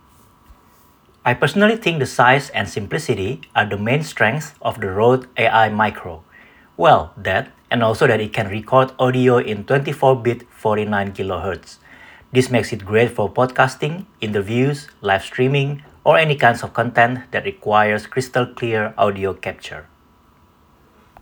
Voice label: iPhone 13 Pro Max internal microphone audio sample